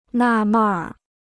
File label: "纳闷儿", Chinese